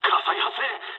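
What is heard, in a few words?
on fire mood low, speech japanese; War Thunder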